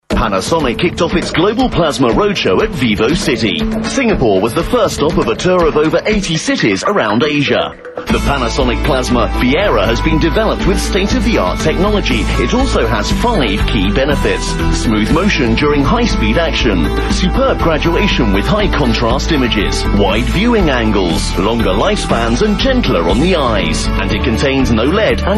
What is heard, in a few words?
UK English Event Ads, English UK Male; Panasonic